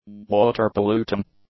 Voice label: "water-polluting", English; adjectives